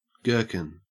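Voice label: "gherkin", Australian English; pronunciations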